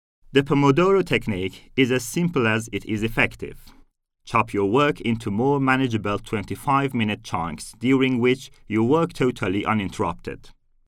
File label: en example voice; english